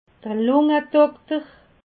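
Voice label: "lungedokter", Alsatian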